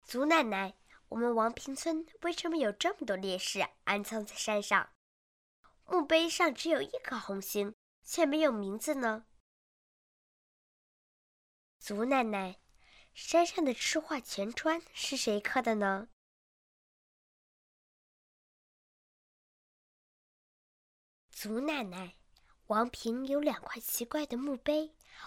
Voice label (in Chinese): 女 真女童; 祖奶奶